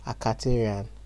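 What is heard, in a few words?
"akaterian", Nigerian Pidgin, male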